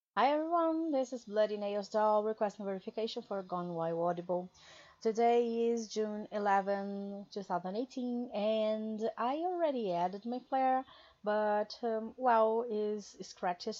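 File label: Female Verification Request; GoneWildAudible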